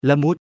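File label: "ละมุด", Thai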